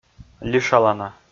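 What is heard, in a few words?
"лишалана", Komi, male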